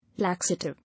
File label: "Laxative", English